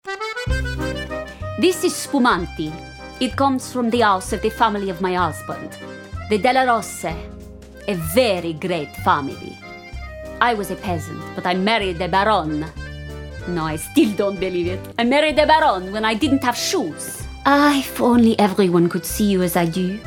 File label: Dialect Europe; Dialects (Europe)